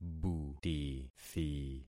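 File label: "butithi", concatenated syllables; Affixing experiment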